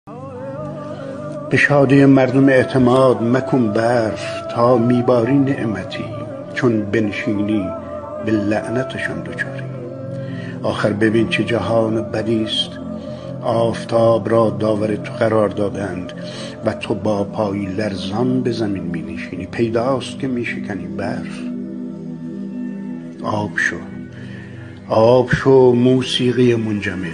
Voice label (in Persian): دکلمه; به شادی مردم اعتماد; shams langeroodi; barf